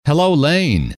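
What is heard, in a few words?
"hello", male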